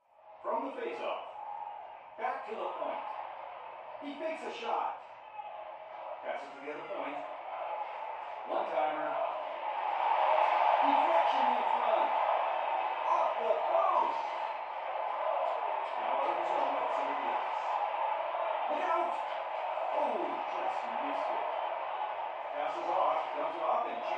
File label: Television; Hockey Game With Announcer, Crowd And Players. From Next Room.; sports